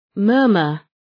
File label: murmur